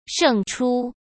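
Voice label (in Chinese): "胜出", Mandarin Chinese; chinese-flashcards; hsk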